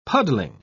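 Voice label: "puddling", British English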